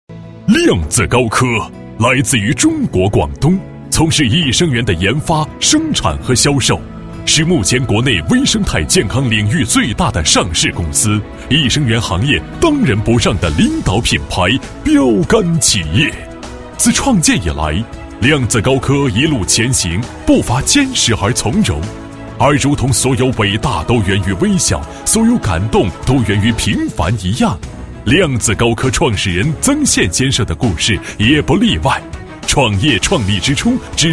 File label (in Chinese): 男 解说词; 量子高科解说词